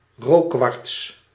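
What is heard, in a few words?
"rookkwarts", Dutch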